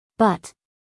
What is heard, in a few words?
"but", US, female